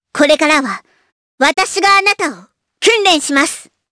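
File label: voice, Japanese; Hanus; kingsraid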